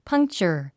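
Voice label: "puncture", English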